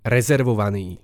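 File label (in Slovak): "rezervovany", Slovak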